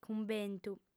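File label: "cumbentu", Sardinian, female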